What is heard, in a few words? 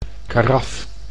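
"karaf", Dutch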